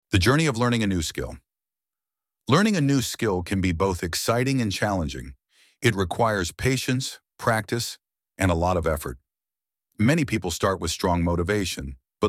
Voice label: reading; learning a new skill